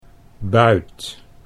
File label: "buit", Dutch